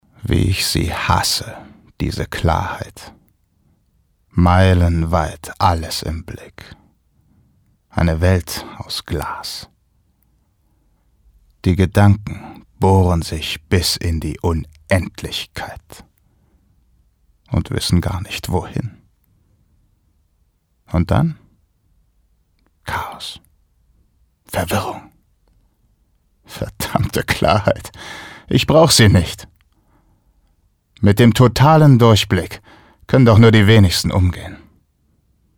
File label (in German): Schauspiel Rau